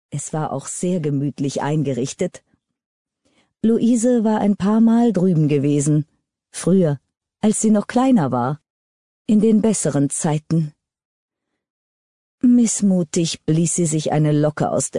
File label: german audiobooks